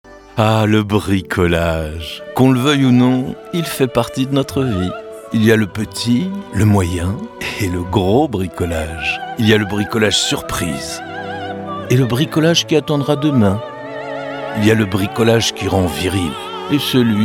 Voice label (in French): mood homme; MR bricolage